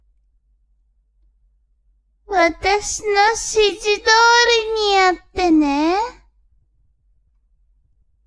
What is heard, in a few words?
woman